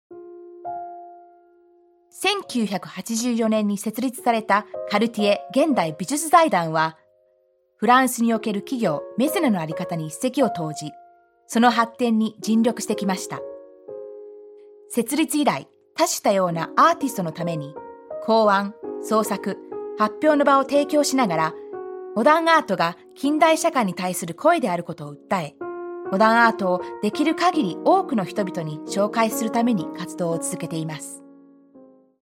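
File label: Japanese Corporate; GoLocalise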